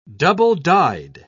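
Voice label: "double-dyed", British English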